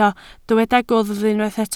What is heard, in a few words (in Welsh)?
"gwddf", Welsh